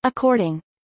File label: "according", English